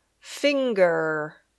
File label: "finger", English